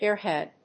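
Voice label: "airhead", English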